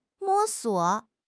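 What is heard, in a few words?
"摸索", Mandarin Chinese; HSK6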